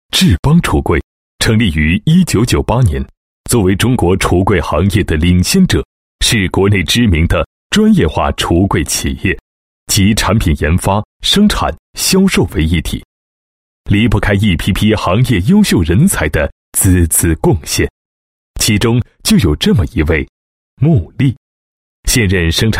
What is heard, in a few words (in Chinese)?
男 专题 现代橱柜企业宣传, 国语男声配音; 大气专题